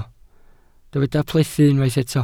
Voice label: "plethu", Welsh